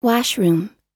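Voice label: "washroom", US English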